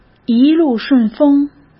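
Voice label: "一路顺风", Mandarin Chinese; chinese-flashcards; hsk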